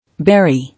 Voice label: "Bury", English

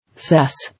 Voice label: "Cess", English

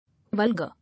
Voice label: "Vulgar", English

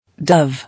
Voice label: "Dove", English

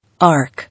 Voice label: "Arc", English

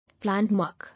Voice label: "Landmark", English

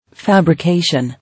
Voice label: "Fabrication", English

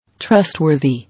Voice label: "Trustworthy", English

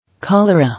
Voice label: "Cholera", English

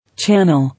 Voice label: "Channel", English

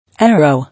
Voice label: "Arrow", English

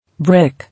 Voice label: "Brick", English

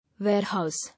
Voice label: "Warehouse", English

